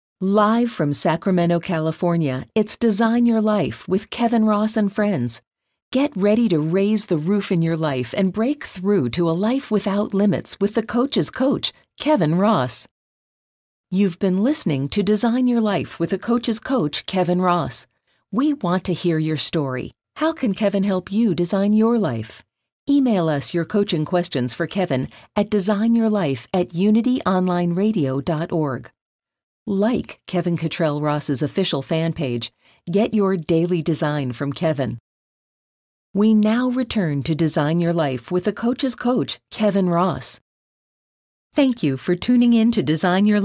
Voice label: imaging

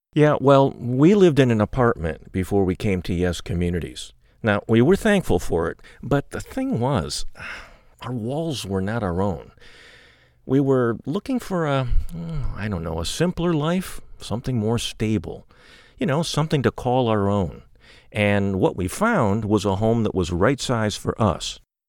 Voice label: TESTIMONIAL HOMEOWNER

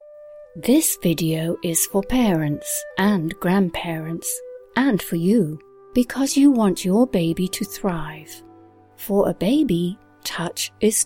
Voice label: Narration Various

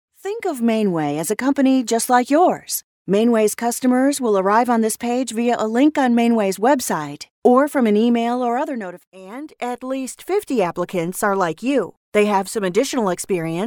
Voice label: Explainer

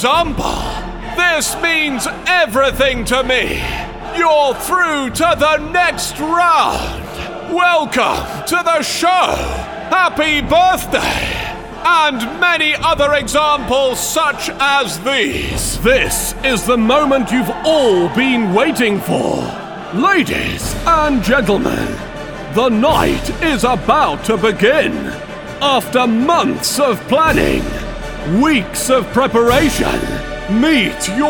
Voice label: Big Shouty Voice

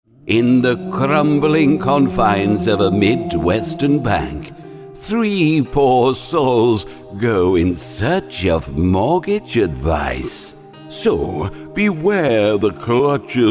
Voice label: SCARY HALLOWEEN VOICE

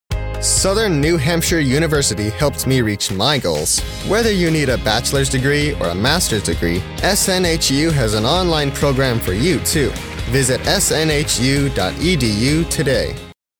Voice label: Commercial College

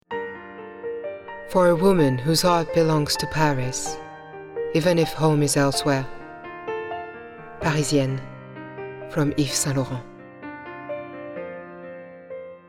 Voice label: Ad

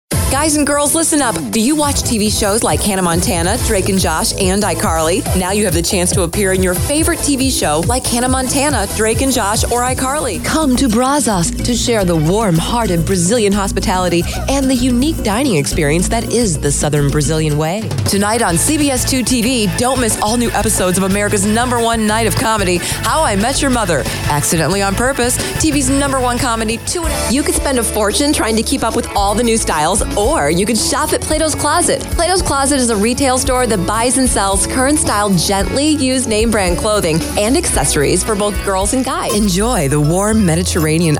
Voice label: COMMERCIALS

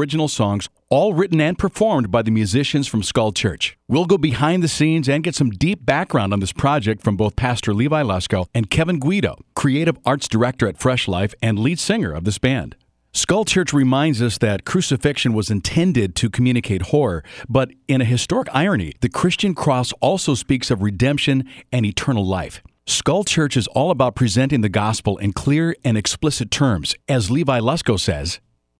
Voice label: documentary sample

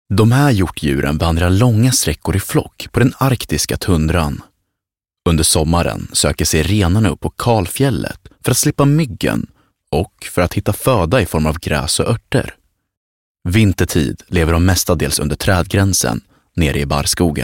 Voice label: Swedish Nature Documentary, male

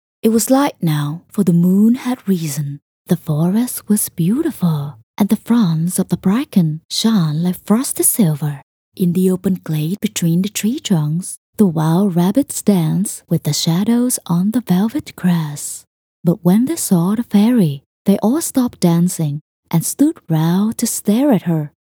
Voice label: Soft Comforting Children audiobook

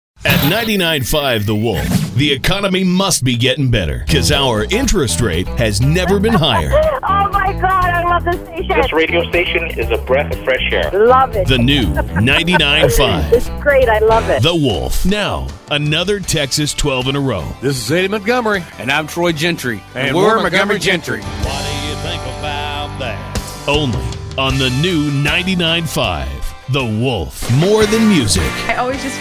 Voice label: country imaging

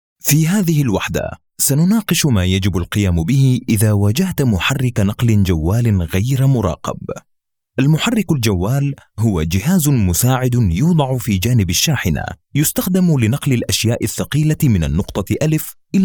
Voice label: E-Learning Narration - Standard Arabic

